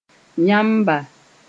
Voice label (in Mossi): "yãmba", moore